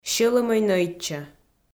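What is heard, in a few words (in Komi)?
сьӧлӧмӧй нӧйтчӧ, Komi, female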